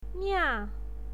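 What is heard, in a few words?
"nia" fourth tone, pinyin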